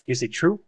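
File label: Voice Acting es M, Spanish, male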